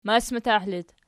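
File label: "masmatah" locative, Archi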